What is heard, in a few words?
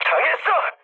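Korean aircraft destroyed mood medium; speech; War Thunder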